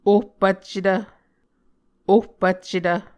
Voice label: "ohpvccetv", Muscogee; Mvskoke Words with Repeated Consonants; Onvkv Ohhvyayices; A Dictionary of Creek/Muskogee; SIL International